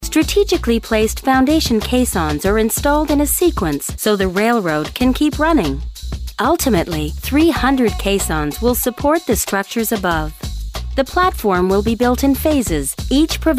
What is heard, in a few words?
Engineering explainer, narration